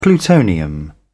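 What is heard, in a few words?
"plutonium", British English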